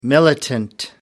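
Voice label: "militant", English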